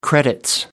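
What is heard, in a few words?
"credits", British English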